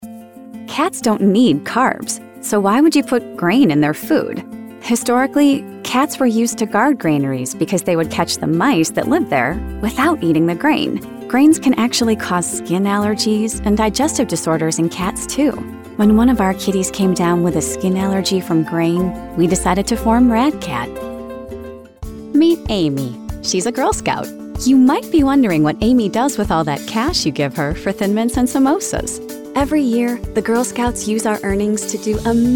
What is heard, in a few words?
Explainer